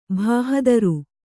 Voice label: "ಭಾಹದರು", Kannada

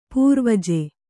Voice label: "ಪೂರ್ವಜ", Kannada

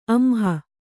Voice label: "ಅಂಹ", Kannada

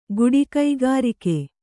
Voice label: "ಕಾಡಿಗ್ಗರಗೆ", Kannada

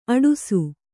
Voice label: "ಅಟ್ಟಸ", kannada

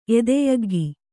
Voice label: "ಎದೆಯಗ್ಗಿ", Kannada

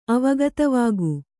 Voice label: "ಅವಗತವಾಗು", kannada

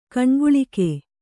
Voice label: "ಕನಕಾಲುಕೆ", Kannada

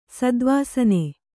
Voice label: "ಸದ್ವಾಸನೆ", kannada

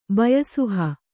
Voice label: "ಬಯಸುಹ", Kannada

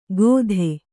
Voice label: "ಕೊದೆ", Kannada

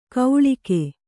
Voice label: "ಗೌಳಿಗೆ", kannada

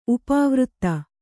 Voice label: "ಉಪವೃತ್ತಿ", Kannada

